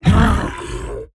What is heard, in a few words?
BOSS Hurt